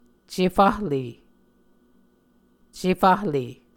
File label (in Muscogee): "cefahle", Muscogee